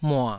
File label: "mua3", taiwanese